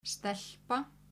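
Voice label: "stelpa", Icelandic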